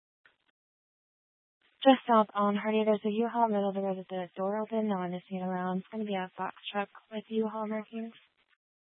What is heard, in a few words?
Tempe PD, scanner; Rgnl Wireless Co-op (RWC)